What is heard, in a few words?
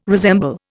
"resemble", Indian